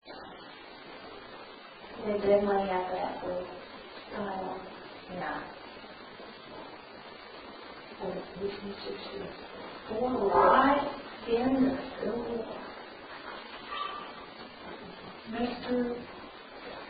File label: Unexplained Female Voice; Case 023 Major Graham Mansion; Master Bedroom; RIGHT